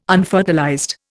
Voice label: "unfertilized", Indian